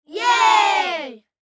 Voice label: Kids Yeah; Human; Talking (Sound Effects:Voice)